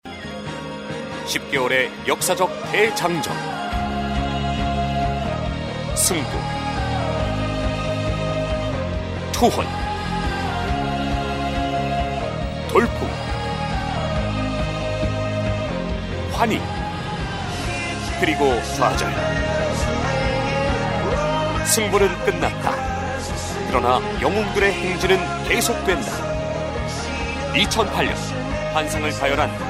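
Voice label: Korean male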